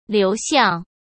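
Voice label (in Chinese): "流向", Mandarin Chinese; chinese flashcards; hsk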